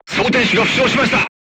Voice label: voice message charger wounded, crew tanks japanese